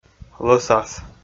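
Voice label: "лӧсас", Komi, male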